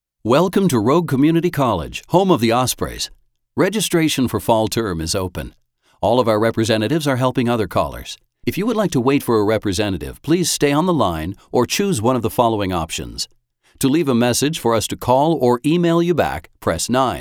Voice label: Phone Hold

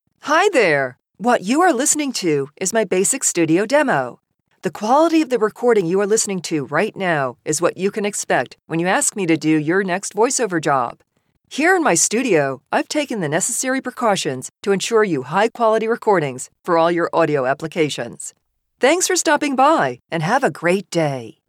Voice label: Studio

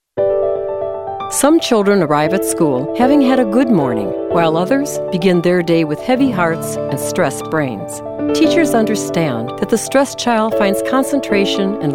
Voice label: Narr

